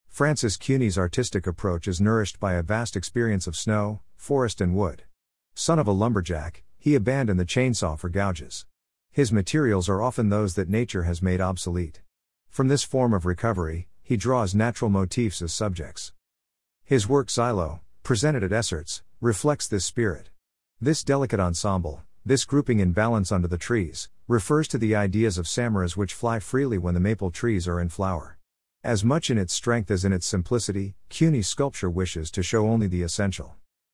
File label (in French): anglais